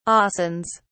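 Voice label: "arsons", British English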